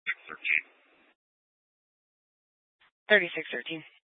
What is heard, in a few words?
Police Dispatch, scanner; Police 1 Dispatch